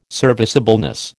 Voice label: "serviceableness", American